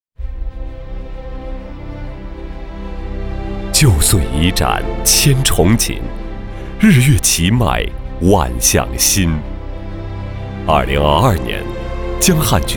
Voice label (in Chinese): 男 宣传片; 杨帆筑梦